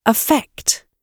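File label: "affect", British English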